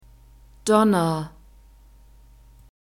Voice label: "donner", German; masculine

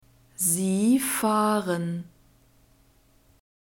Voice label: "sie fahren", German; verbs present tense irregular

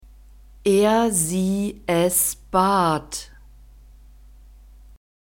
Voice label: "er sie es bat", German; verbs past tense irregular

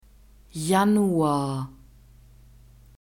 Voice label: "januar", German; masculine

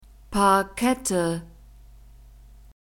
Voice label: "Parkette", German

